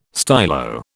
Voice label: "stylo", British

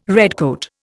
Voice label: "redcoat", Indian

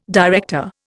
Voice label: "director", Indian

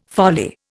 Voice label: "folly", Indian

